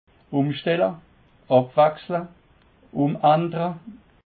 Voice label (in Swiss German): "umstelle", alsace